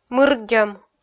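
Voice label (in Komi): "мырддьӧм", Komi, female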